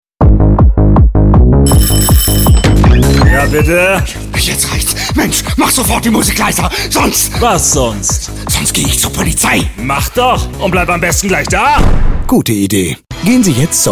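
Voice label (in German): szene, sprecher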